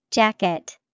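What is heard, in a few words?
"Jacket", English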